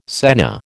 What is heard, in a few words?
"senna", American